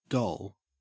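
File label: "doll", Australian English; pronunciations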